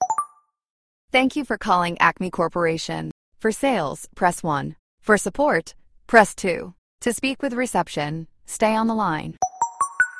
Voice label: greeter, ivr voice; ivr voice generator